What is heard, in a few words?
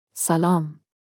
Farsi Hello informal; Greetings